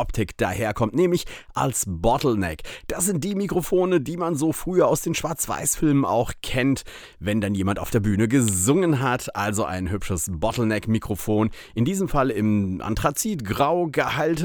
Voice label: blue spark sl test frontal, studiomikrofon

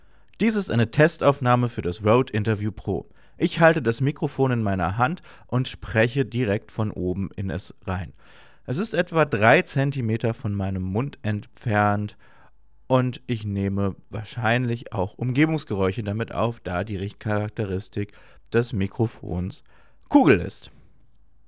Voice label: rode interview pro testaufnahme; Aufnahme in internen Speicher als 32-bit Float exportiert

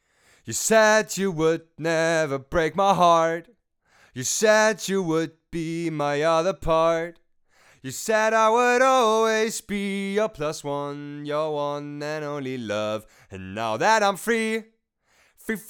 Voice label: ohne booth raum; hsv vocal booth

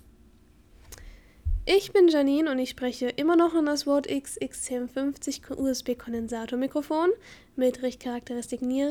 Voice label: ohne effekte verstaerkt; rode x xdm

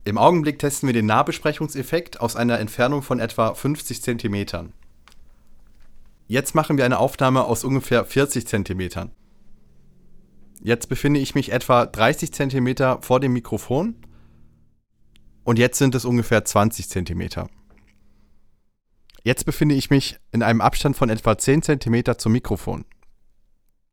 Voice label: Nahbesprechung

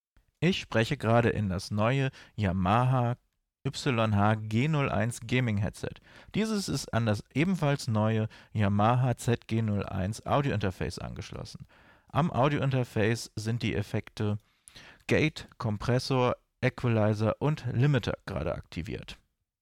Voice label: mit gate comp eq und limiter; yamaha zg01

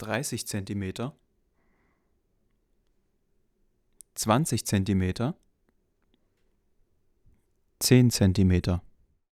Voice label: nahbesprechung, Sennheiser MK 8

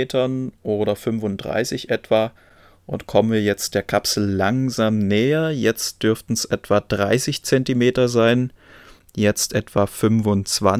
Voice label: Fox Nahbesprechung kompensiert; beyerdynamic

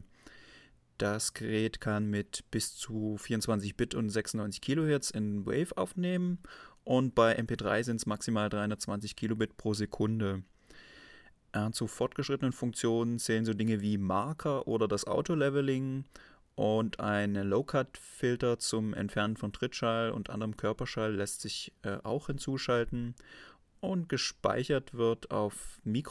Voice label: zoom h1 stimme

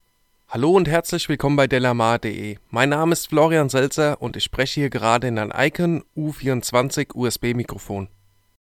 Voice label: stimme m

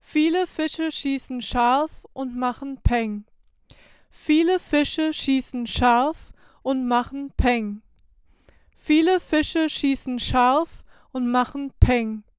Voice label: plosive sibilanten; akg c636 test

